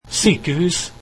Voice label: hospital, norwegian

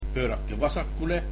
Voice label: Turn left, estonian

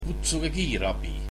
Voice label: Call the ambulance, estonian

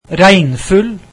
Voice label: rainy, norwegian

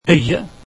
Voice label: eye, norwegian

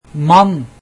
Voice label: man, norwegian

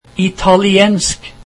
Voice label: Italian, norwegian

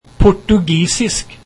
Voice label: Portuguese, norwegian

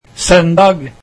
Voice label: Sunday, norwegian

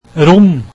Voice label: room, norwegian

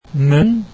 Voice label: mouth, norwegian

